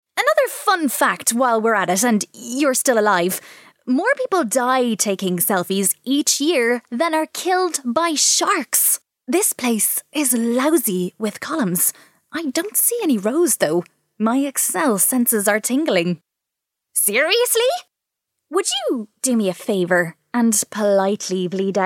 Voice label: irish voice over character